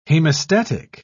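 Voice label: "hemostatic", British English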